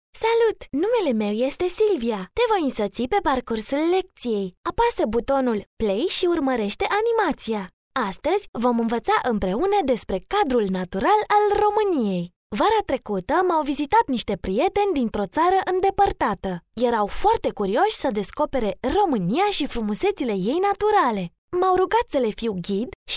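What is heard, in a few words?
romanian female narration; voice demos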